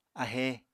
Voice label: "ahe", Apatani